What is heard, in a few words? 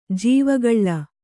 "ಜೀವಕಾಲ", Kannada